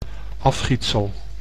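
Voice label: "afgietsel", Dutch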